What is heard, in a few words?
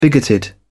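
"bigoted", British English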